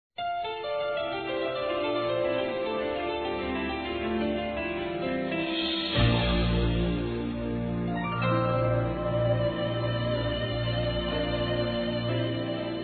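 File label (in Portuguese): Namorados Com Declaracao, male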